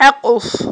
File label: "Iэкьулф", Aghul